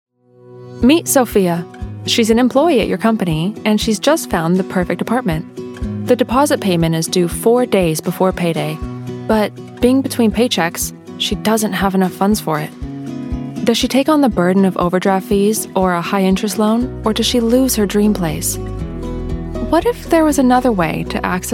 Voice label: Corporate, american

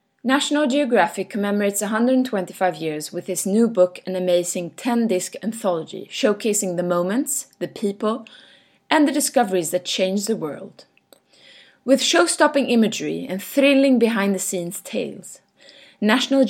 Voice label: English Swedish Accent

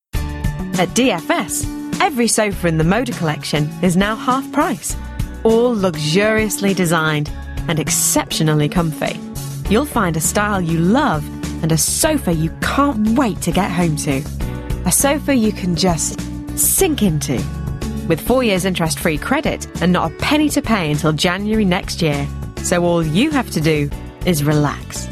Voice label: Yorkshire

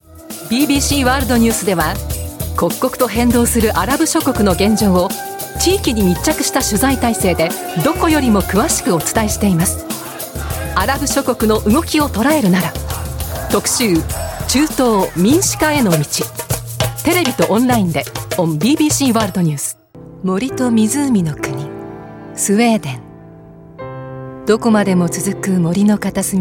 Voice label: Japanese

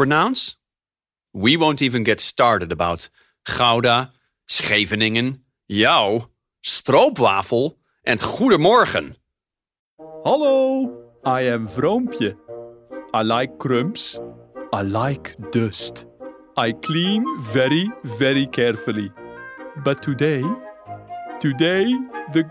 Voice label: Dutch Accented English

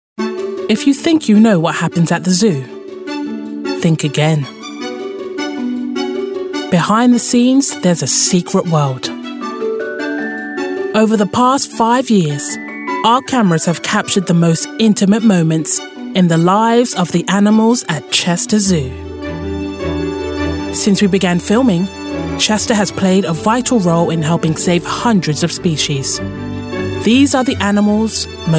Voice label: Documentary

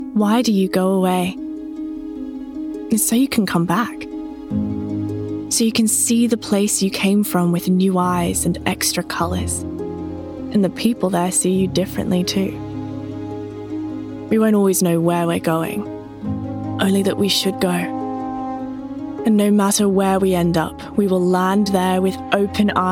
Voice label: Comercials

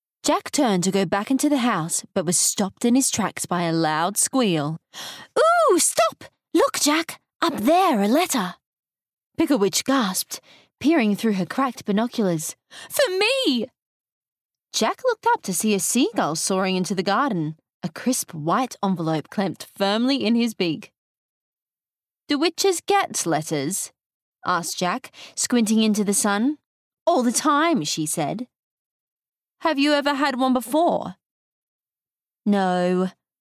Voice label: audiobook, female; South African

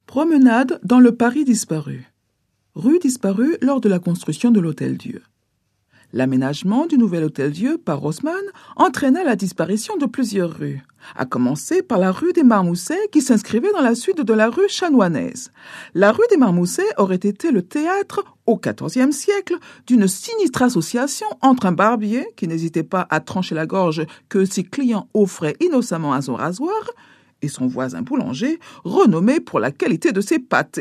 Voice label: French, Female, 30s-40s, voiceover; European

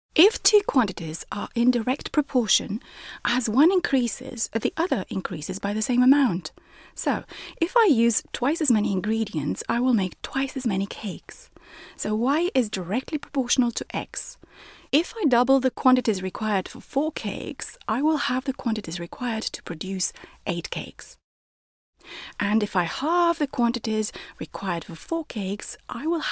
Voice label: English

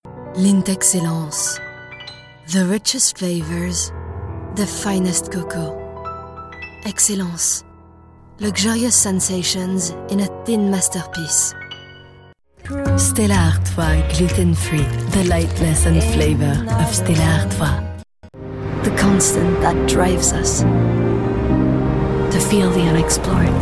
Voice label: English

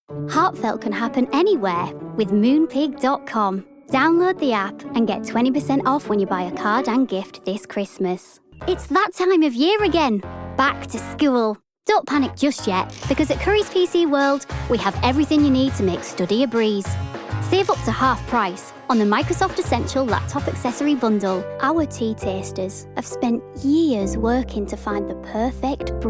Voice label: Commercials, yorkshire

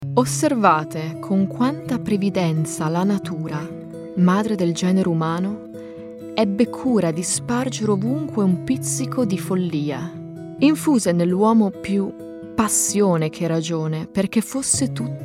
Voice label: Italian

